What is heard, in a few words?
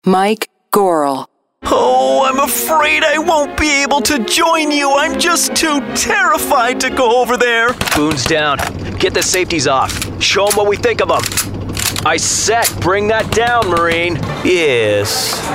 animation, men; voices men